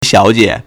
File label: "xiao3jie3", Chinese